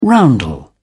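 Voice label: "roundel", British English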